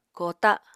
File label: "koota", Apatani